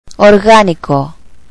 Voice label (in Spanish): "organic", Spanish